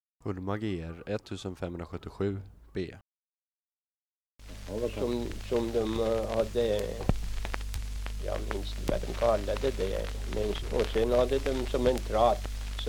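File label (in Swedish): Lohärad Uppland, dialekter; Svenska sagor; Folkminnen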